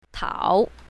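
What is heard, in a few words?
"tao3", pinyin, south, female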